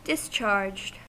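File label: "discharged", US English; pronunciations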